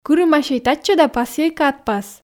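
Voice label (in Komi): кырымасьӧй татчӧ да пасйӧй кадпас, Komi, female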